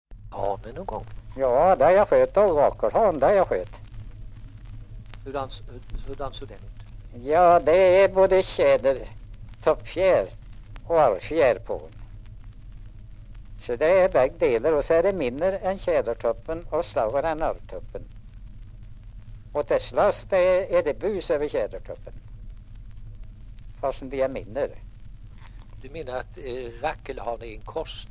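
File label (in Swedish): Sunnemo Värmland, dialekter; svenska sagor; Folkminnen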